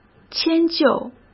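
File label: "迁就", Mandarin Chinese; chinese-flashcards; hsk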